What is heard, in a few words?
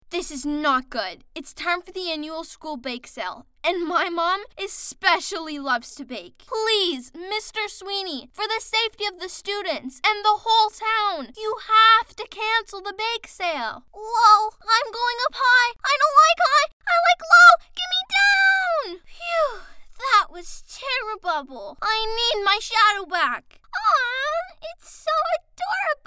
Animation